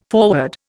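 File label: "forward", Indian English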